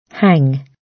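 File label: "hang", British English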